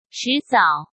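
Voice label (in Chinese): "迟早", Mandarin Chinese; chinese-flashcards; hsk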